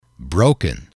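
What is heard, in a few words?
"broken", English, male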